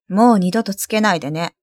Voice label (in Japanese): シャットアウト別ニュアンス, 罵りシステムボイス; 健気な許嫁のほのあま耳かき; ドット＊ハーフ